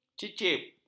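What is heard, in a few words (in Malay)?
"cicip", Malay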